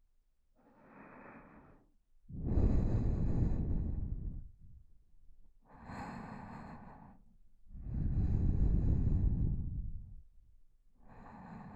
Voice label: soine, ASMR